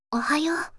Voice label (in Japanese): 四国めたん（セクシー） おはよう